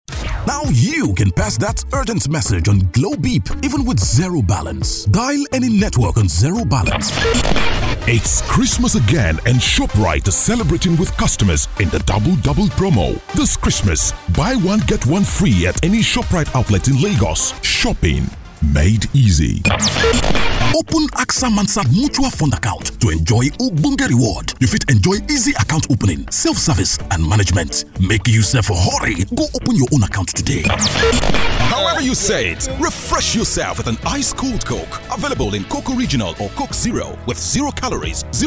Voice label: english african